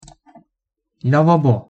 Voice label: "lavabo", Turkish

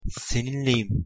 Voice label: "seninleyim", Turkish

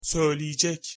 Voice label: "söyleyecek", Turkish

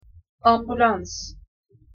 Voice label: "ambulans", Turkish

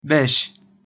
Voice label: "beş", Turkish

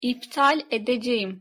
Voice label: "iptal edeceğim", Turkish

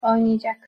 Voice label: "oynayacak", Turkish

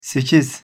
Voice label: "sekiz", Turkish

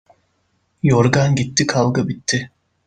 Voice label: "yorgan gitti kavga bitti", Turkish

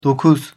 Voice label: "dokuz", Turkish

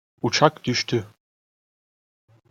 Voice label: "uçak düştü", Turkish

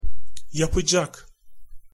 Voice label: "yapacak", Turkish

